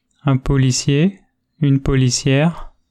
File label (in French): "policier", French